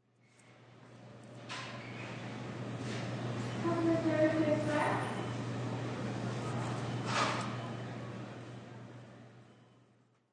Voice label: Grocery PA, Unintelligible announcement in grocery store.; Stores and Malls; Backgrounds